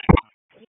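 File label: Wake Forest Police Dispatch, p25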